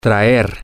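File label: Spanish, to bring, male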